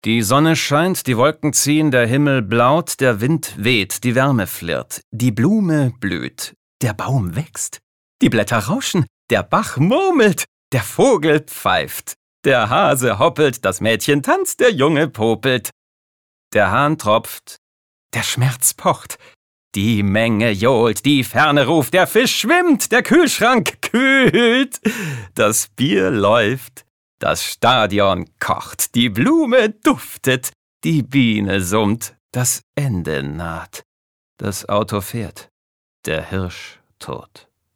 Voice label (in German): Schauspiel, Variabel